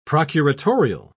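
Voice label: "procuratorial", English, British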